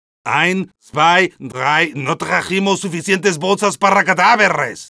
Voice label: medic taunts, es

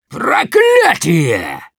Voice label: Demoman negative vocalization, ru

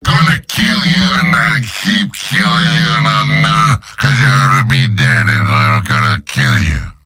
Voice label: Demoman gibberish

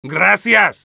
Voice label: thanks, es; Medic

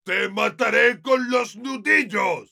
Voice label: heavy melee dare, es

